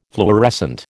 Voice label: "fluorescent", American